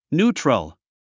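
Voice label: "neutral", English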